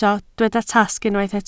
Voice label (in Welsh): "tasg", Welsh